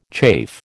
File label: "chafe", American